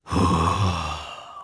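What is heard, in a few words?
Vox Casting, Japanese; Roman; kingsraid audio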